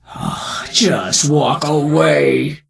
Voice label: NPC Death Knight Female Low Pissed